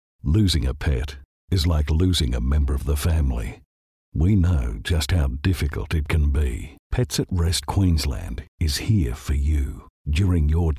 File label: Emotive Warm